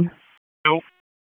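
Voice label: Police District Dispatch, p25; Raleigh; Southeast; wake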